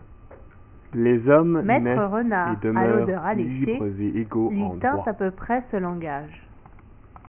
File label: long example mixed french